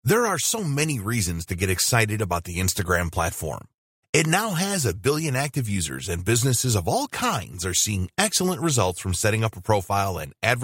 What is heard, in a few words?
Sales Video Voice Over; instagram ads success